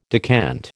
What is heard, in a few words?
"decant", American